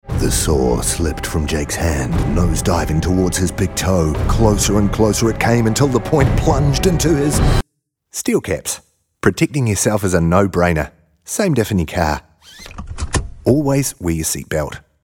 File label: seatbelt radio; big toe